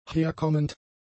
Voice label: "herkommend", German; adjectives